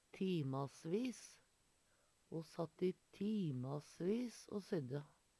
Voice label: timasvis, Numedalsmål; Adverb

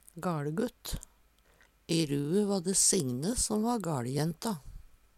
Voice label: galgutt, Numedalsmål

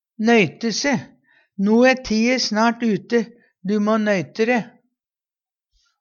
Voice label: "nøyte se", Numedalsmål; skunde seg; No æ tie snart ute. Du må nøyte re.; Uttrykk

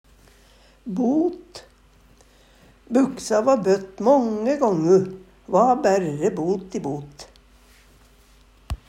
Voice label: bot, Numedalsmål; Substantiv hokjønn; Personleg utstyr, klede, sko

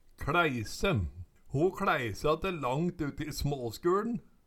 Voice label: kLaeise, Numedalsmål; Ho kLæisa te langt ut i småskuLn

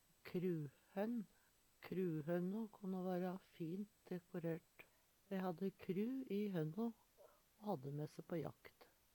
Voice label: kruhonn, Numedalsmål

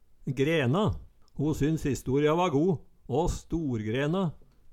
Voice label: "grena", Numedalsmål

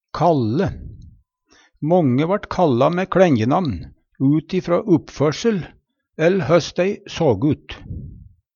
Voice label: kalle, Numedalsmål

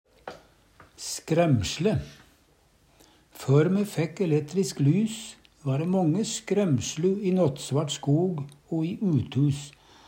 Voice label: "skræmsLe", Numedalsmål

